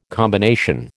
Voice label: "combination", American